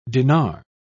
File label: "dinar", pronounce